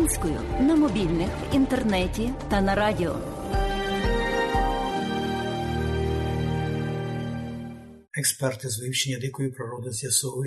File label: ukrainian; SBS Ukrainian; Тіло білого кита на пляжі Вікторії спочатку була прийняте за зниклого Міґалу…